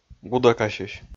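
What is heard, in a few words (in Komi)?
"гудӧкасьысь", Komi, male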